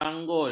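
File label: "banggul", Malay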